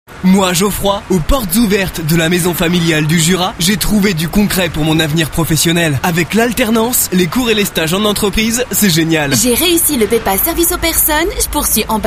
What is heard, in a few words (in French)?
pub, comédienne voix off; MAISON FAMILIALE JURA